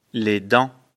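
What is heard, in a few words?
"dents", French; afrikalan; lecture fr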